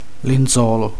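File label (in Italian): "lenzuolo", Italian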